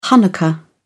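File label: "hanukkah", British English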